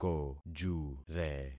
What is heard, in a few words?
"kojure", concat syllables; Affixing experiment